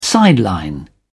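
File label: "sideline", British English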